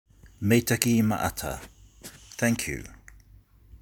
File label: Thank you, Cook Islands Language; CILW 2022; Cook Islands Language Week; 2022 Pacific Language Weeks